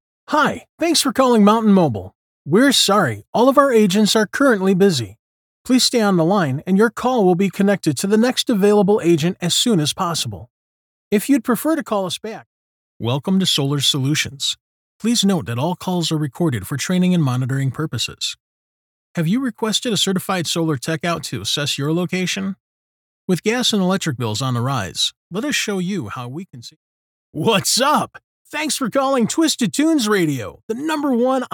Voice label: IVR